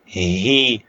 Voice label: short voyels arabic alphabet